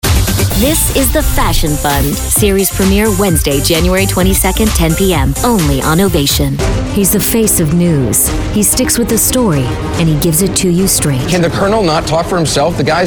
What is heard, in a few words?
promo